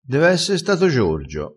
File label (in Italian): "dev esse", dialettale